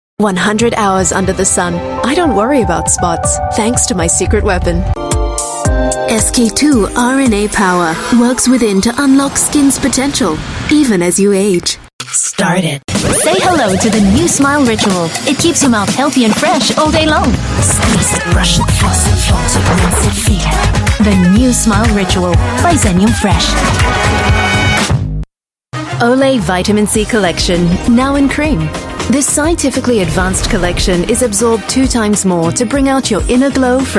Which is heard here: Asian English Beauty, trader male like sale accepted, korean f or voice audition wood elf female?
Asian English Beauty